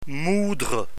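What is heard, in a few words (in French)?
"moudre", French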